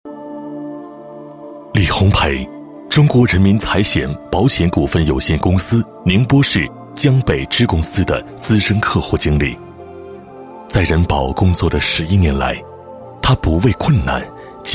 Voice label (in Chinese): 男 人物 先进事迹; 人保财险宁波市分公司